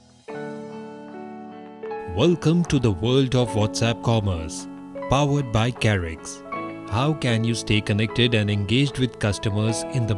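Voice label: explainer english; KARIX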